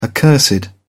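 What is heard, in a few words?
"accursed", British English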